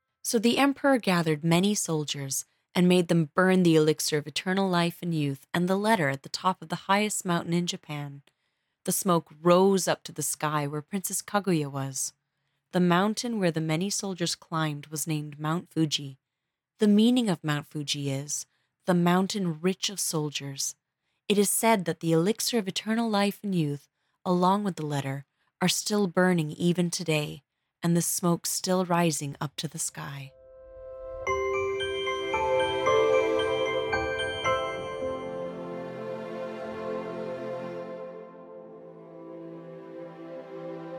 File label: English